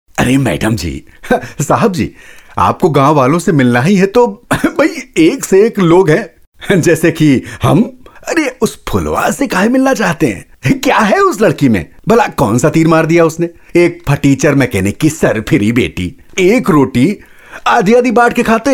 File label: radio sample, male voice